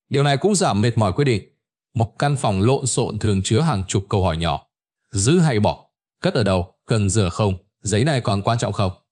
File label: narration; Vi Sao Mot Can Phong Lon Xon Co The Lam Ban Met Moi; StickerMan